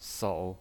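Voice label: "sou", Mandarin Chinese pinyin, third tone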